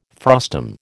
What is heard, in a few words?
"frustum", American